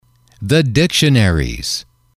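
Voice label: "the dictionaries", English, male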